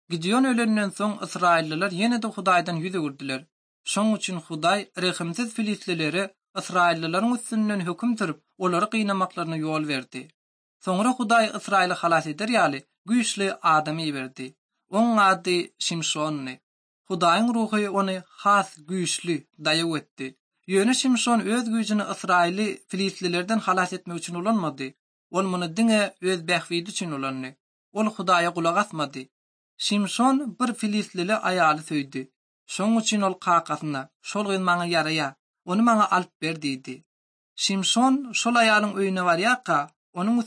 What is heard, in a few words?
turkmen, ot drama